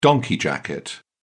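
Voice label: "donkey jacket", British English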